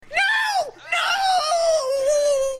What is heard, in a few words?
no nooooo; steel